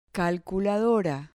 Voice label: "calculadora", Spanish